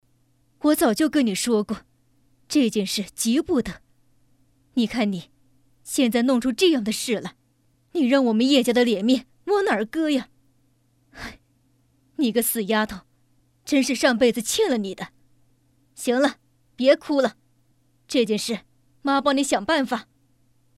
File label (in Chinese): 女 大妈